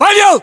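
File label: "pavel", battlechatter, Russian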